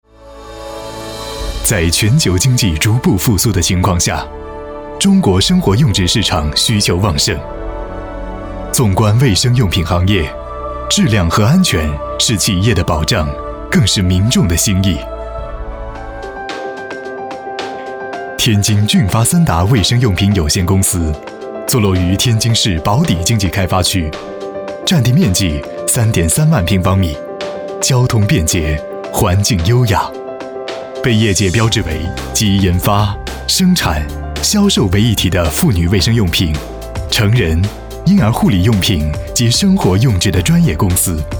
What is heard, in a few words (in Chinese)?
男 时尚国际企业专题; 天津骏发